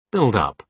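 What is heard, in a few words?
"build-up", British English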